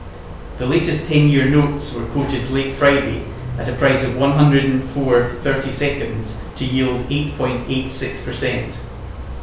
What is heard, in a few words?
Reverb near